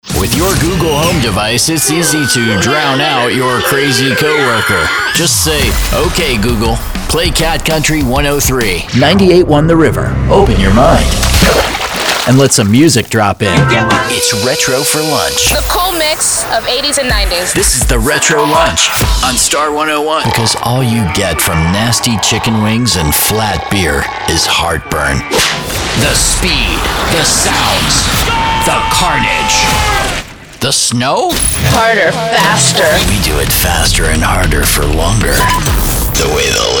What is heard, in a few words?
radio imaging multi format